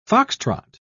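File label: "fox-trot", English, British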